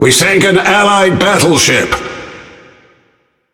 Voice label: Speech Destroy Ally Battleship, EN GB; World Of Warships

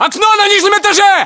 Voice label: location first floor window, ru

